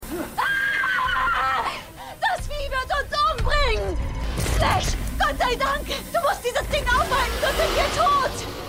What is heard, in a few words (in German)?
Wachfrau, Stimmproben; The Flash